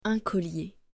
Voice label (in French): "Un collier", French